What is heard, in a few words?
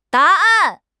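"da2 an4", Mandarin Chinese; HSK4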